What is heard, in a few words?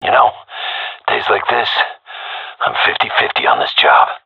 scared, seal diver; Source Model Packs; Counter Strike Model Pack; CS2